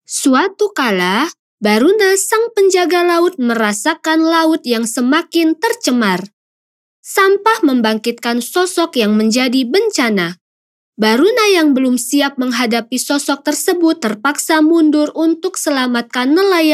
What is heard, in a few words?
CASUAL INDONESIA